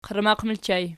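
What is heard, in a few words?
"qarmaq" ergative plural, Archi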